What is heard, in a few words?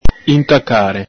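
"intacare", Venetian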